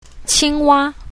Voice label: "qing1wa1", mandarin

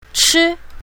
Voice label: "chi1", pinyin south

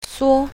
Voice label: "suo1", pinyin south

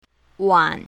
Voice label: "wan3", pinyin south

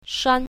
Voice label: "shan1", pinyin south

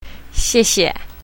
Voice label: "xie4xie4", mandarin

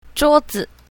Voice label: "zhuo4zi", mandarin

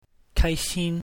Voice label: "kai xin", Mandarin

